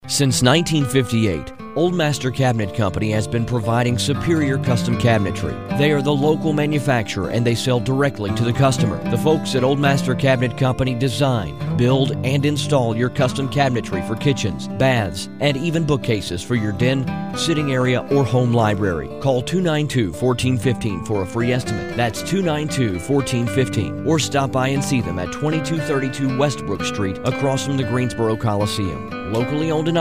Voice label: radio commercial; Old Master Cabinet Co